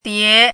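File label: "dié", Mandarin Chinese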